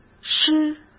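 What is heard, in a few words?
"诗", Mandarin Chinese; chinese-flashcards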